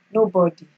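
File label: "nobody", Nigerian Pidgin, female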